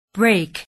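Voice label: "break", English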